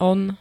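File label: "on", Slovak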